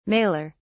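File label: "maler", English, uk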